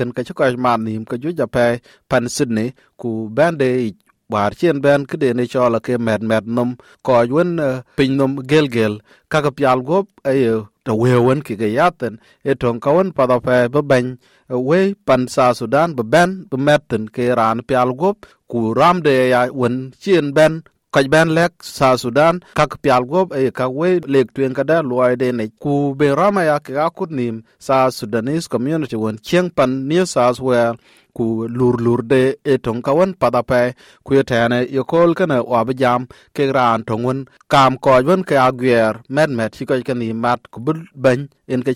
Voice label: dinka; Ke ye raan akutnhom luel bɛn bɛ̈ny yic; SBS Dinka